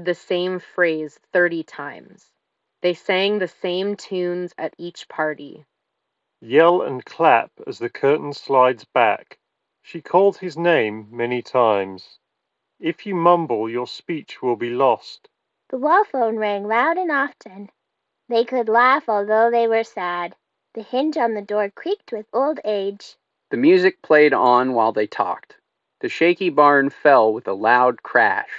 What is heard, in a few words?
Bose QuietComfort Earbuds Ideal